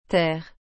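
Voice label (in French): "terre", French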